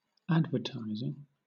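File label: "advertising", British English; pronunciations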